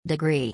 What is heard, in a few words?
degree in english voice